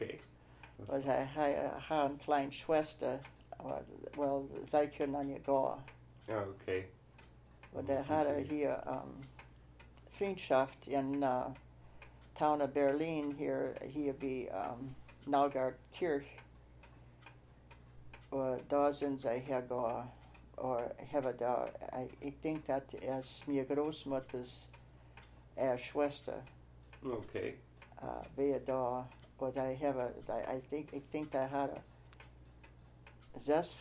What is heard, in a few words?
central wisconsin platt, low german